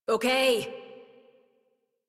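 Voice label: horse stop adult, English; Warframe; Duviri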